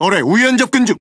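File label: Korean Speech Alarms Torpedo Right; World Of Warships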